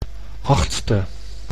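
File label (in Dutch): "achtstse", Dutch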